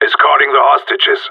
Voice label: Radio bot hostages escort; Counter-Strike Global Offensive; PS3 Windows Xbox 360

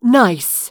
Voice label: "Nice", voice

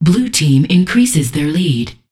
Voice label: Voices Announcer Status

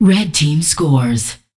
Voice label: Voices Announcer Status

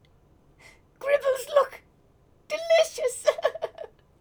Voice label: Female Reactions

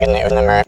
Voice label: Trooper Voice

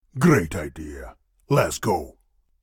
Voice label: Voice Dialog

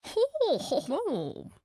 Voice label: Manager Mumble; Candy Crush Soda

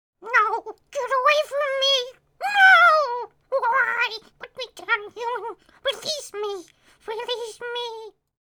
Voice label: Male Beg

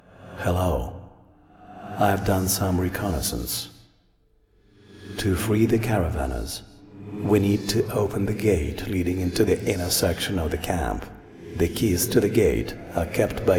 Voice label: Voice Dialog; we are the dwarves gamerip 2016

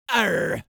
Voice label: Voices Jamaican Arrr

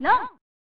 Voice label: "Loonght!" (Female); pro mahjong kiwame 2; snes

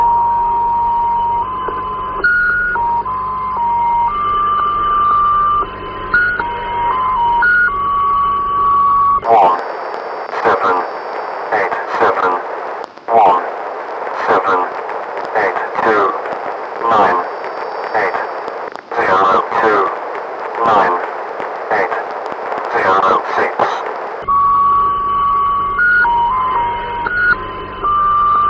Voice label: Number Station

